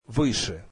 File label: russian above